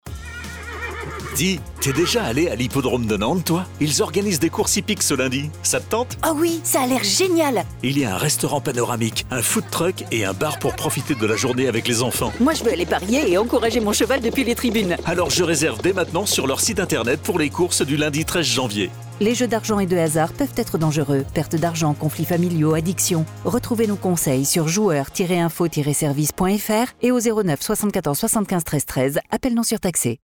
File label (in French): Pub radio; Hippodrome de Nantes